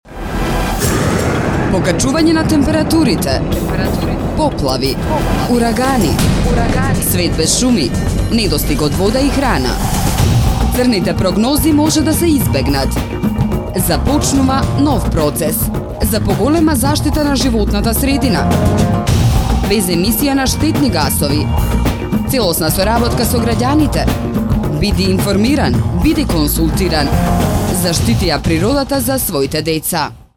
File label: Radio spot